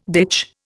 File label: "ditch", Indian